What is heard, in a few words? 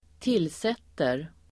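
"tillsätter", Swedish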